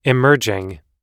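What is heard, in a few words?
"emerging", American English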